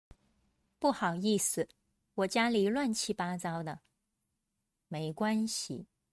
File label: denoised; Chinese Idiom 乱luàn七qī八bā糟zāo